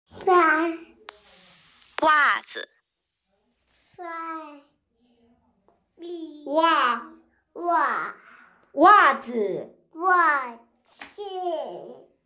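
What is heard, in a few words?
"wà zi", Mandarin Chinese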